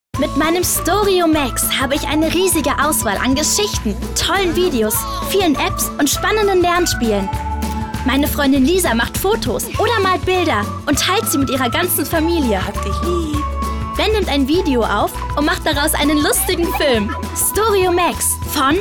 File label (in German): Freundlich